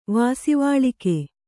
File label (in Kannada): "ವಾಸಿವಾಳಿಕೆ", Kannada